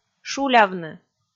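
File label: "шулявны", Komi, female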